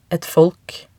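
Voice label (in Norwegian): "et folk", Norwegian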